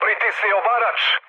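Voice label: Serbian attack position mood med, speech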